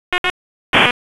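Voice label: EMS DISP, radio; wake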